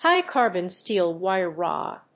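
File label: "HIGH CARBON STEEL WIRE ROD", English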